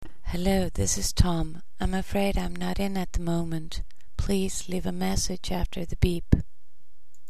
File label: phone answeringmachine